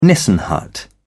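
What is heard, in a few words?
"nissen hut", British English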